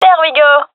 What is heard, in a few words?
cheer, gendarmerie fem; vo; agents